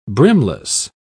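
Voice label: "brimless", British English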